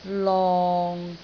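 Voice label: "long6", Cantonese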